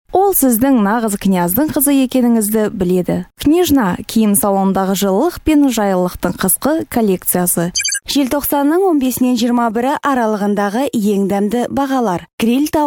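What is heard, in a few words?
kazak female narration; voice demos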